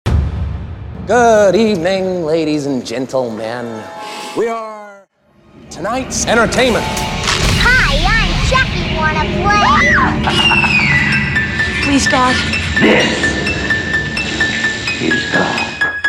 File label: Halloween Intro Special Voice 128 BPM; halloween welcome voice 1